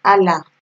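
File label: "hala" Nigerian Pidgin, female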